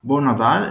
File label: christmas, Venetian; Bon Nadal; Merry Christmas